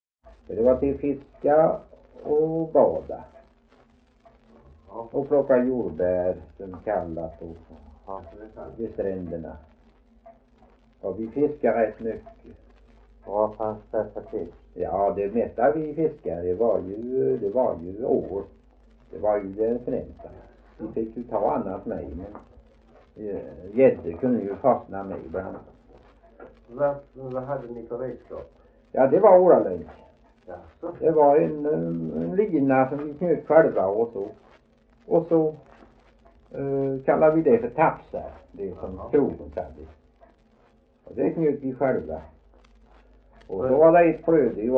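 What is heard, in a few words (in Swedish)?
Veddige Halland, Svenska sagor dialekter; Folkminnen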